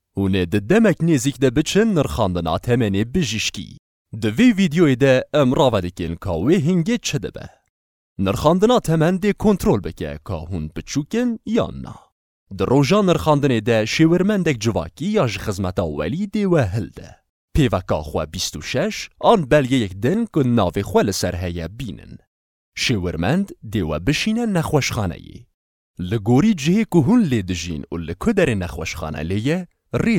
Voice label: Kurdish Kurmanji Application, Commercial